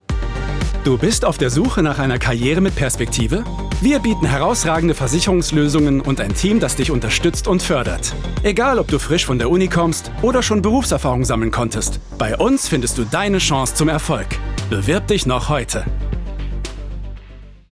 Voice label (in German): Corporate; RECRUITING